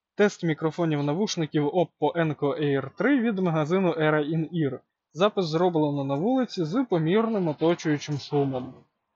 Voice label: Oppo Enco Air 3 Outdoor